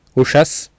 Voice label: "uSas", Sanskrit